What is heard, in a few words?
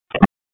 Raleigh Police Northeast District Tac, p25; wake p25